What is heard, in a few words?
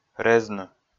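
"резны", Komi, male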